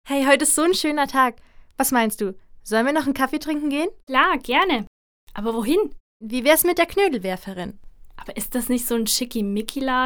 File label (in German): Werbung